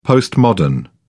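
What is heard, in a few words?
"postmodern", British English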